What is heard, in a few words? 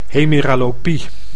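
"hemeralopie", Dutch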